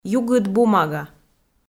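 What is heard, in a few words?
"югыд бумага", Komi, female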